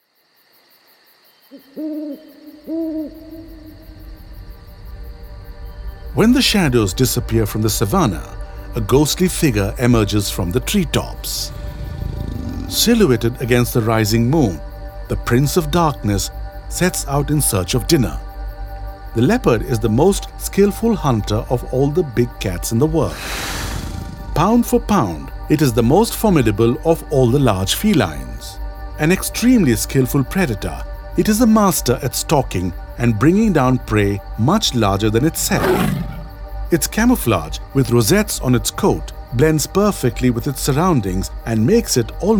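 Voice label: Hindi, English accent; GoLocalise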